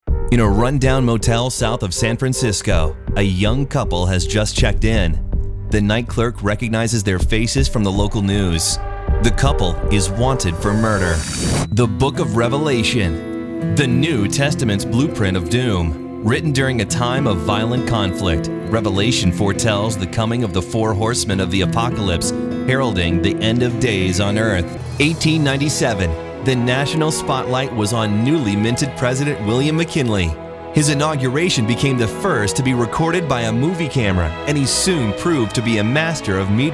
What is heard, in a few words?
Narration